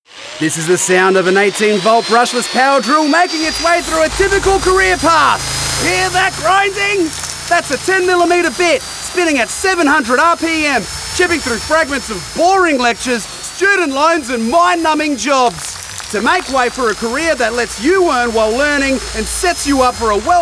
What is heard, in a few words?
Radio; CITB; Trade Up Radio